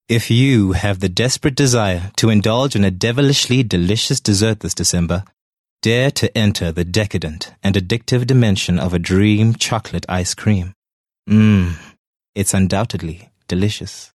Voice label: English Sexy